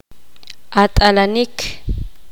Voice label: poqomam; saber